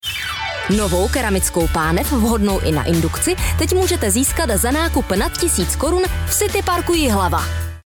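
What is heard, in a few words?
Czech, female, commercial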